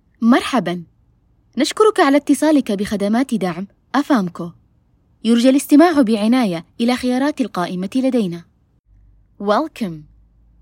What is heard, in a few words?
voiceover